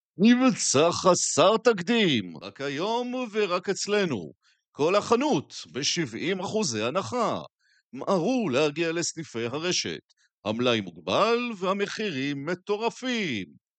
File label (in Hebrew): פרסומת אנרגטית